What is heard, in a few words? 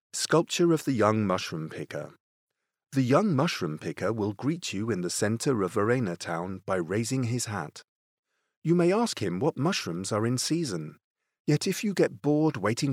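English, British, male; english british voice over talent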